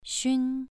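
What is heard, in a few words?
"xun", Mandarin Chinese, second tone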